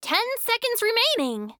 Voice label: Announcer Time Remaining; Paladins; Evie